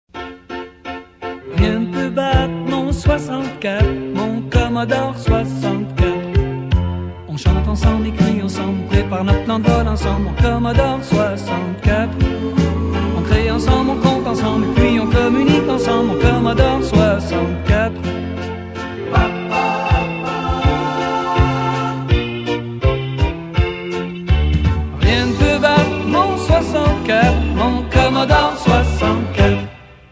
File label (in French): C64 French